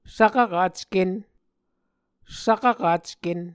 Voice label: svkvkacken, muscogee

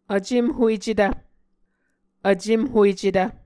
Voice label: "vcemhuecetv", Muscogee

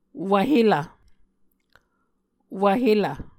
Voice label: "wvhelv", Muscogee

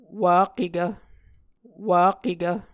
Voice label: "wak-ekv", Muscogee

